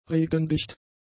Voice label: "regendicht", German; adjectives

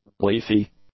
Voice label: "leafy", english; adjectives

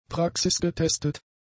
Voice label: "praxisgetestet", German; adjectives

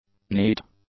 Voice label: "neat", english; adjectives

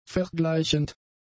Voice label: "vergleichend", German; adjectives

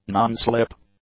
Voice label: "non-slip", english; adjectives

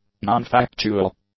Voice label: "nonfactual", english; adjectives

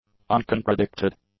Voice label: "uncontradicted", English; adjectives

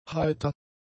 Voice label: "heiter", German; adjectives

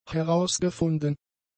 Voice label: "herausgefunden", German; adjectives

